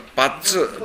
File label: "battu", minamiyamagata